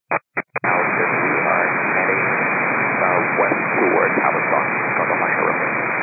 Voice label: 122.925 MHz; scanner radio